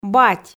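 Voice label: "бать" Komi, female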